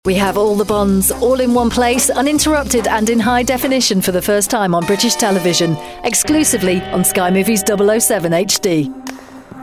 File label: female English promo; voices; sky movies 007 hd